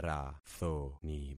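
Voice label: "rathoni", concatenated syllables; Affixing experiment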